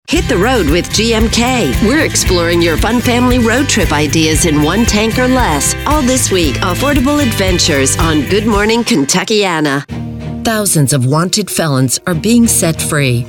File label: female